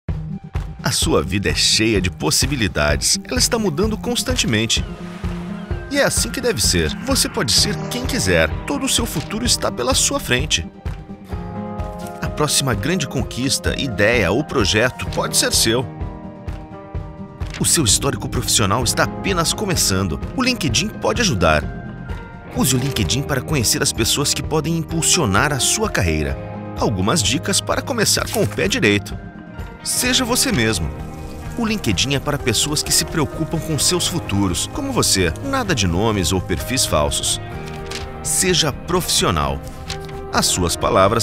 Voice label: Brazilian Portuguese; LinkedIn Safety Center - Teens